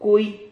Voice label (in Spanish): "cuy", Silabas